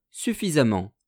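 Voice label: "suffisamment", French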